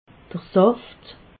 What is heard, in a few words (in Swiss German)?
"saft", alsace